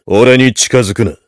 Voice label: heavy jeers